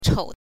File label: "chou5", pinyin